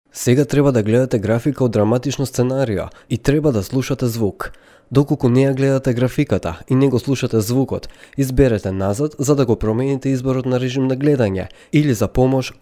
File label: macedonian male